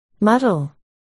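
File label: "muddle", spoken word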